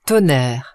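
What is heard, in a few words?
"tonnerre", French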